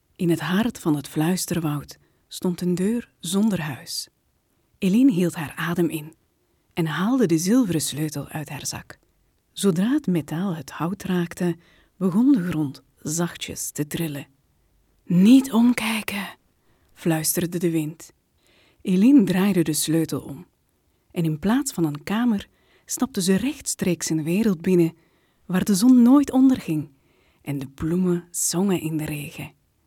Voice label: flemish narration